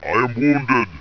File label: i am wounded, rumbly; pain